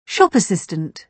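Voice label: "shop assistant", British English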